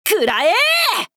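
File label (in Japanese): 「食らえー！」, 男の子 王道系少年主人公 戦闘ボイス 攻撃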